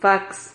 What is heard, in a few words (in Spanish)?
"fax", Silabas